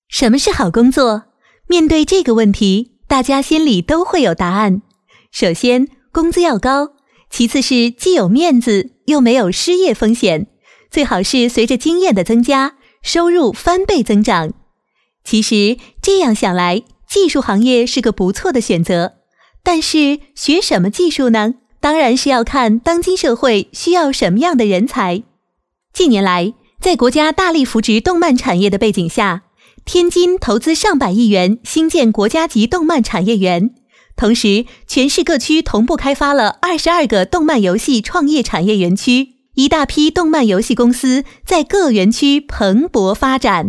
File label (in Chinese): 女国 专题 动漫园区建设